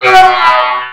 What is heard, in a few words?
Male Die Robot; Mars Sortie